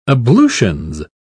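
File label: "ablutions", British English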